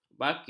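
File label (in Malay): "bakhil", Malay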